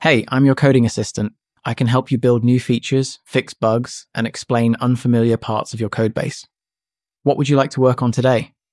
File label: neutral; daniel; agents Voice